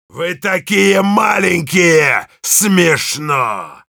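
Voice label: Russian; Heavy; taunts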